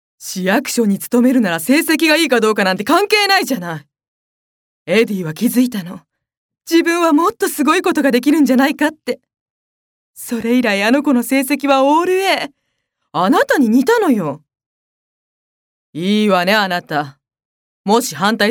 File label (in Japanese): zyosei